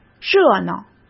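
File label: "热闹", Mandarin Chinese; chinese-flashcards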